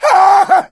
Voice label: incapacitated injury, voice; Left 4 Dead 2; coach

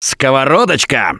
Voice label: Take Frying Pan, russian; Gambler

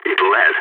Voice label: enemy down, balkan; Counter-Strike Global Offensive

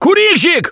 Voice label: grabbed by smoker, russian, Survivor Voice; Gambler

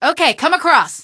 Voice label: plank, male; Garry's Mod; hl2; Streetwar; tunnel

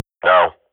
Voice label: radio bot reponse negative, Counter-Strike swat; Global Offensive; player vo

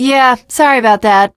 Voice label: finale chat, teengirl, voice; Left 4 Dead 2

